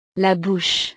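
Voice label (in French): "la bouche", French; partes del cuerpo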